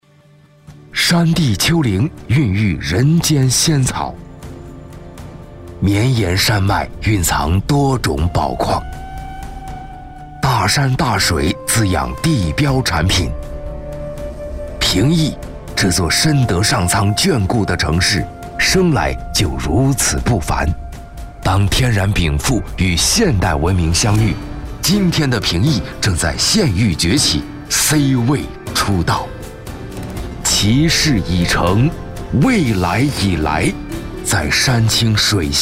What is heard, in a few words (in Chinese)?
男 专题 航拍中国风格; 平邑新规划